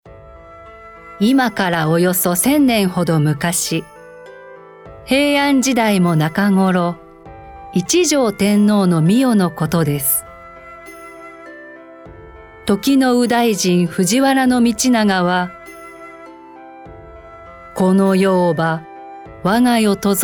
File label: narration